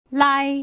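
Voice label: "lai", Mandarin Chinese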